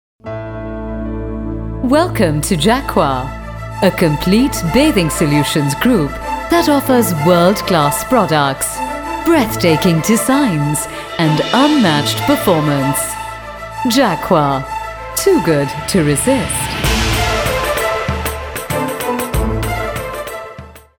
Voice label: tvc music, female voice; jaquar; op-1

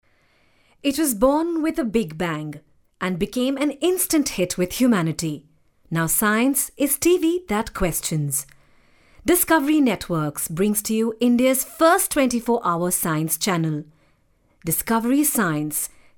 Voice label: English vo, female voice

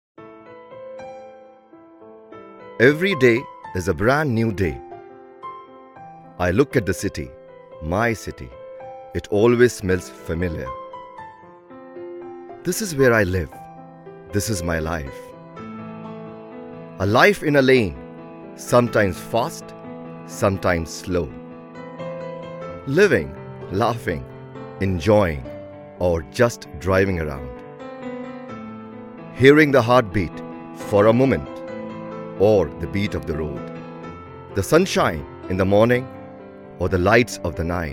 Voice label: eng, male voice; EVERYDAY

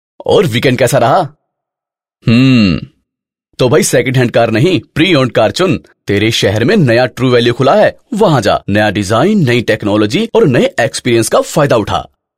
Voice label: Hindi, male voice

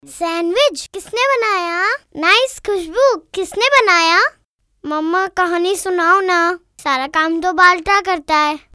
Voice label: girl age 10 years, female voice

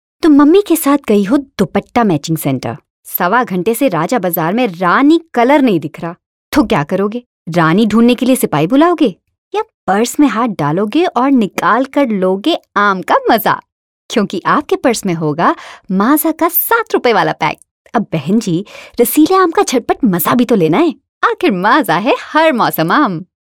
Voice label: female voice; Maaza

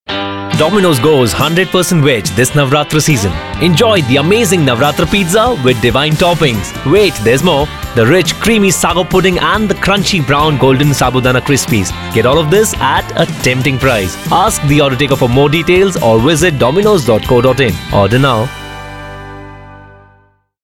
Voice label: eng, male voice

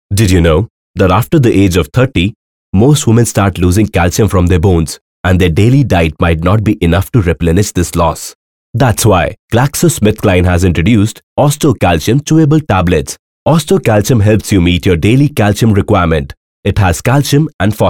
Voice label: English, male voice

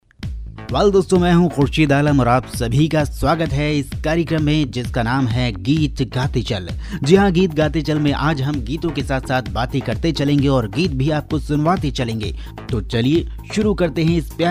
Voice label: delhi, male voice

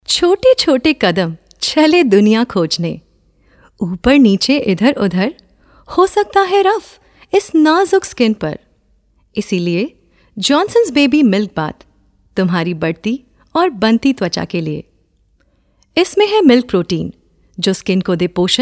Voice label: ad hindi, female voice

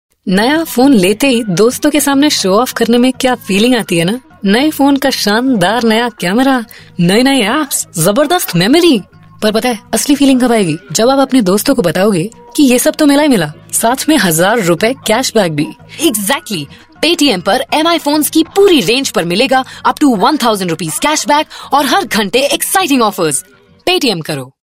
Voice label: Hindi, female voice; Naye Phone

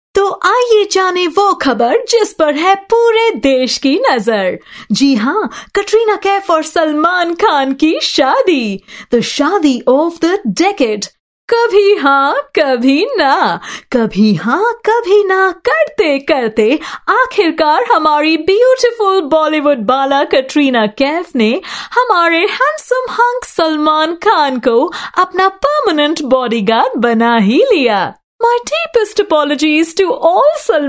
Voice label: hindi RJ vo, female voice; philips

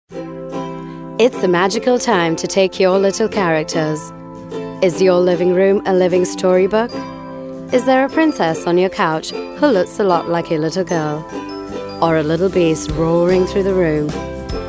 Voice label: female voice English

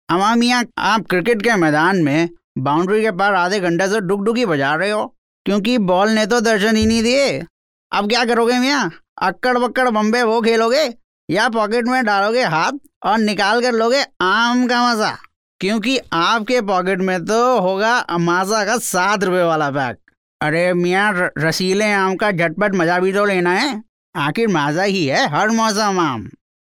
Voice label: hindi, male voice

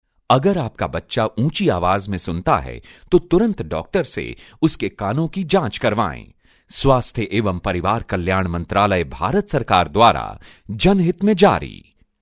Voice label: male voice

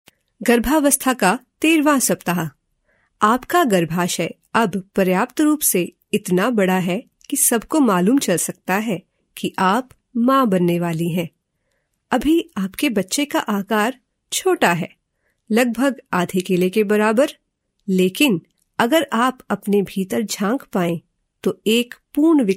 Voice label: female voice Hindi

